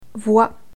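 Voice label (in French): "voie", French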